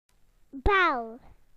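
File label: "bell", British English; PictureDictionary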